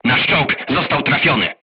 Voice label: voice message player tank hit, polish

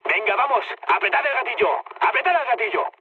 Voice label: attack position, mood med, speech; War Thunder

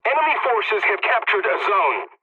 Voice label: pilot capture enemy, english; War Thunder

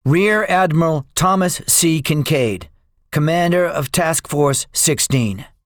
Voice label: debriefing, english; guadalcanal; War Thunder

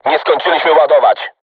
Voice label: voice message art reloading, crew tanks polish

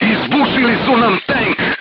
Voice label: voice message player tank hit, crew tanks serbian

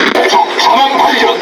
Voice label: Speech Detection Enemy Battleship, KO; World Of Warships

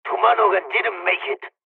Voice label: dialogs turkish; War Thunder

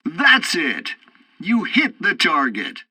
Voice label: tutorial assault bombing, english; War Thunder

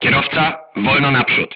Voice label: voice message drive slowly, crew tanks polish

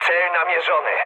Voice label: near waypoint mood med, speech pol; War Thunder

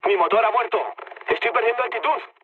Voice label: damaged engine, mood low, speech; War Thunder

